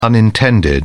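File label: "unintended", British English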